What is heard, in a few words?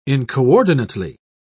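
"incoordinately", English, British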